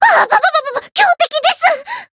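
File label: Voice encount fear, Japanese; myungwha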